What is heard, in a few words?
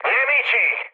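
Italian enemy detected mood high, speech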